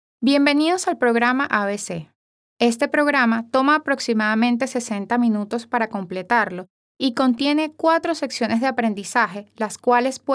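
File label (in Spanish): f ES-LA E-Learning, female Latin American Spanish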